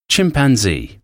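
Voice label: "chimpanzee", English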